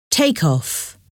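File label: take off, English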